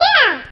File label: "yeah" cartoon, voice